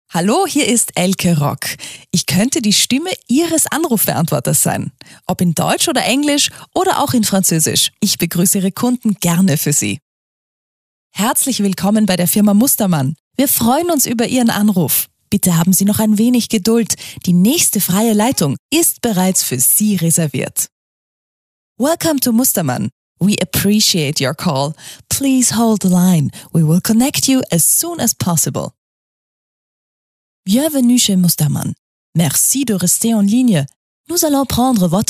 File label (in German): Sprecher Telefonansagen